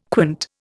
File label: "quant", Indian